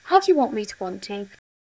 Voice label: Voice Acting es